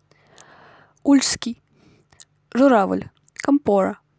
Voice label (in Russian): ульчский журавль